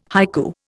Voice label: "haiku", Indian